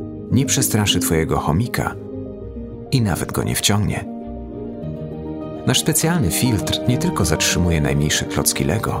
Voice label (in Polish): PL Product Commercial Odkurzacz Silent Cisza 2 Pro